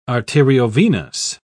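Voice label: "arteriovenous", English, British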